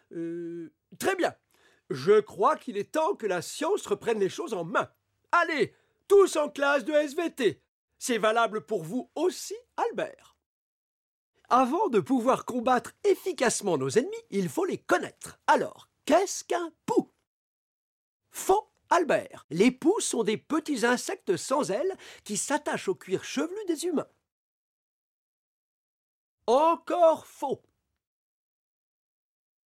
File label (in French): dessin animé Professeur; ATOUT 5; Brian